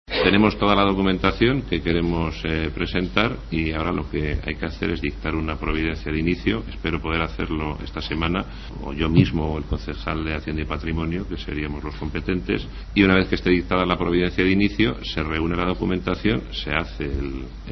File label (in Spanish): noticias